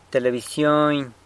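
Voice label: "telebisyony", San Lucas Quiaviní Zapotec; television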